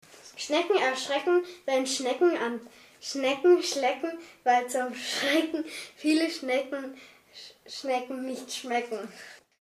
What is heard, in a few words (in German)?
deutsch schnecken